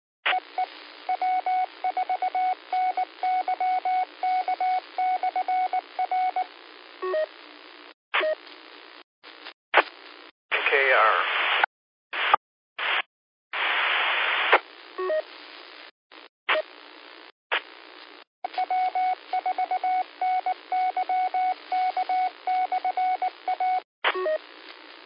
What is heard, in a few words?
qso rec simplex logic